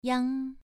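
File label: "yang2", Chinese pronunciation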